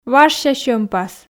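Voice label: "важся сьӧмпас", Komi, female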